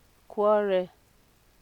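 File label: "quarrel", Nigerian Pidgin, female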